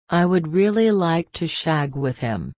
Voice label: frase shag, Reino Unido; girias